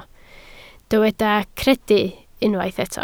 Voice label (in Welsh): "credu", Welsh